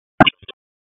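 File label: Raleigh Police Special Operations Tactical, p25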